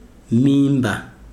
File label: "belly", Chikunda